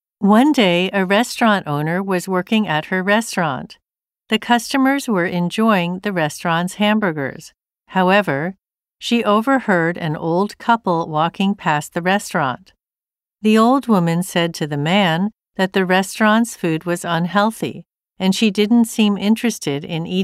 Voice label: Speaking Model Narration